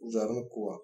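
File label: firetruck, Bulgarian; tuxpaint-stamps; vehicles; emergency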